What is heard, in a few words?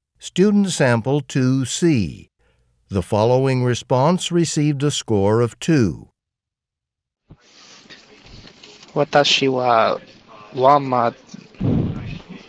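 japanese conversation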